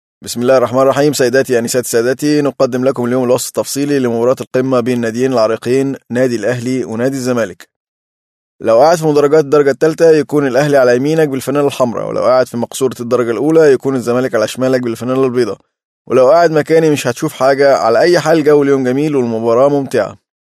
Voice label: arabic male